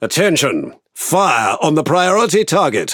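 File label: Speech Priority Target, British English; World Of Warships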